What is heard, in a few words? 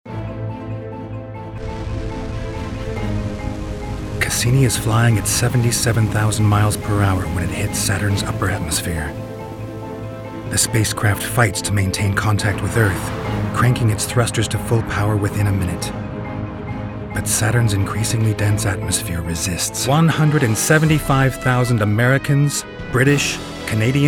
Narrative